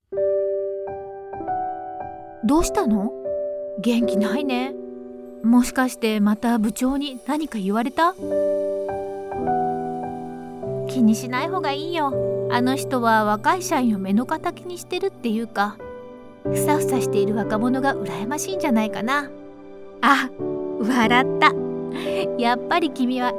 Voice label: Character Young Woman 20 35 years old "Cheer up" Drama